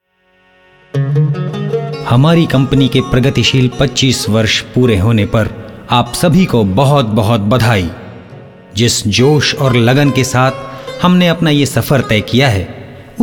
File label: hindi narration, male voice